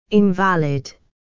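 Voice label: "invalid", English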